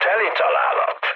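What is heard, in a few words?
Hungarian bomb success mood low, speech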